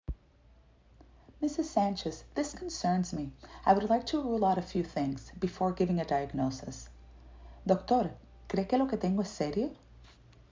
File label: Medical Certified Oral Spanish Practice